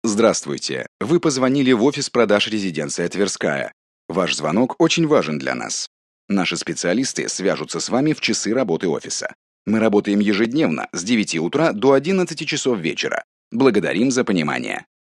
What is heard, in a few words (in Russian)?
IVR диктор; РЕЗИДЕНЦИЯ ТВЕРСКАЯ